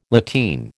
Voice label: "lateen", American